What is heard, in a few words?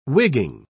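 "wigging", British English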